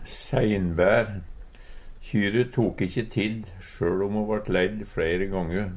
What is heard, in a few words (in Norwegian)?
"seinbaer", Numedalsmål; Kyre tok ikkje tidd, sjøl om ho vart leidd fleire gongu.; Adjektiv